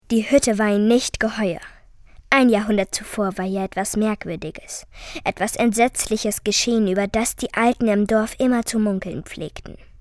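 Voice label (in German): Mädchen; Die Hütte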